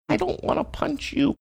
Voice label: saying i dont wanna punch you funny

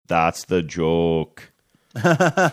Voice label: saying that's the joke funny accent

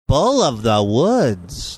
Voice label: saying bull of woods singsongy

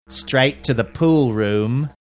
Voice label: saying straight to pool room australian accent

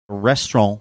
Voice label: "restaurant" overly done french accent

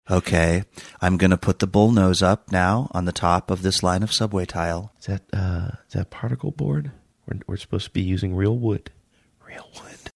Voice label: asmr construction talk

